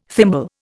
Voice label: "thimble", Indian